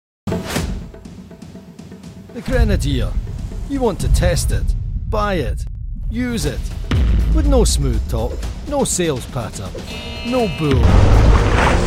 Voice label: CAR LAUNCH BRAND FILM; Scottish; Ineos Grenadier